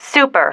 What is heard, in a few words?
"super", female